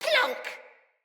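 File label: Death Corrupted Sprouts, en; Dialog; Battle Barks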